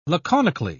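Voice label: "laconically", British English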